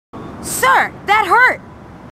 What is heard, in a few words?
marines female, marine tough